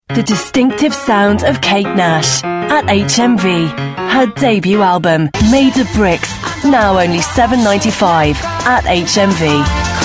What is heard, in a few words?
promos